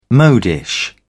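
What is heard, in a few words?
"modish", British English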